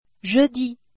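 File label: "jeudi", French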